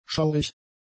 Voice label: "schaurig", german; adjectives

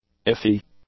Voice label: "iffy", English; adjectives

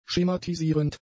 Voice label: "schematisierend", german; adjectives

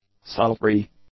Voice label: "sultry", English; adjectives

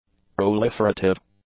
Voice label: "proliferative", english; adjectives

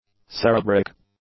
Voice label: "cerebric", English; adjectives

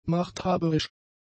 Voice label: "machthaberisch", German; adjectives

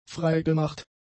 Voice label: "freigemacht", German; adjectives

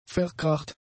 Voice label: "verkracht", German; adjectives

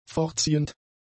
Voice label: "fortziehend", German; adjectives

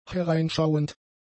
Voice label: "hereinschauend", German; adjectives

